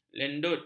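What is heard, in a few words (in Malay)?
"lendut", Malay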